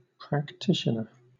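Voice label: "practitioner", British English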